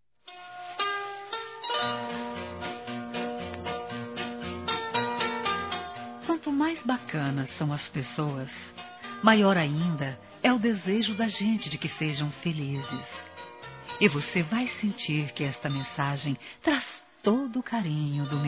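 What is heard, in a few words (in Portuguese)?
Natal para amigo (a) feminino, Telemensagens; Natal e Ano novo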